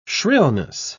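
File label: "shrillness", British English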